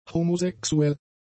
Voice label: "homosexuell", German; adjectives